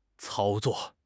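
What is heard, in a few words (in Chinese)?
"操作", Chinese; HSK6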